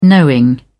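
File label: "knowing", English, British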